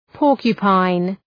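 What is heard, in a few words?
"porcupine", English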